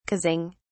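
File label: "causing", British English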